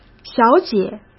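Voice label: "小姐", Mandarin Chinese; hsk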